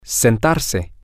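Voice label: to sit down, Spanish, male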